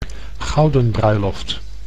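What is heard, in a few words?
"gouden bruiloft", Dutch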